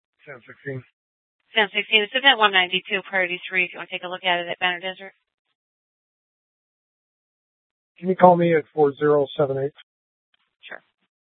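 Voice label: police dispatch, scanner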